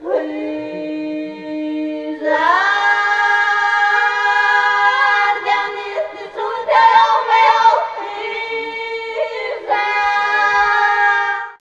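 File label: voice, Foreigns; Sound & Vision; Gigapack II CD 2 (Roland)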